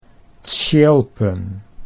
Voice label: "tsjilpen", Dutch

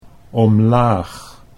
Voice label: "omlaag" Dutch

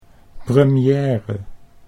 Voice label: "premiere", Dutch

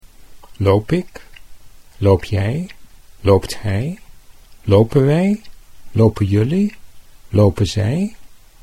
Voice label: "loop ik", Dutch

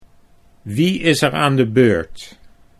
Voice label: "wie aan de beurt" Dutch

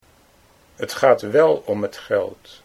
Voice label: "t gaat wel geld", Dutch

